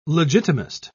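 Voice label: "legitimist", British English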